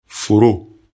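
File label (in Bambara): "foro", Bambara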